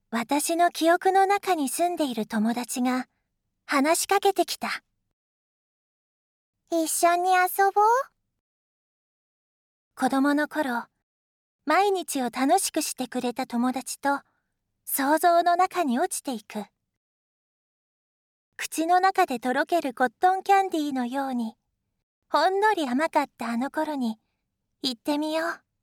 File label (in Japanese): やさしい、儚げな(日本語), ナレーション; 韓国ソウル出身。日本の専門学校、声優養成所を卒業。